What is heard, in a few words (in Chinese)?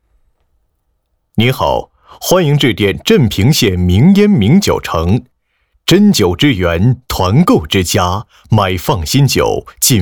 其他 彩铃, 男国; 名烟名酒城